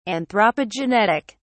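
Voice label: "anthropogenetic", English, British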